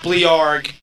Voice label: "bleargh", Voice